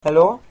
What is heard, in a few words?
"hello", welsh